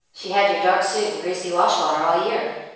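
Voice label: speech female reverb; dereverb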